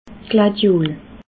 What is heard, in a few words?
"gladiol", alsace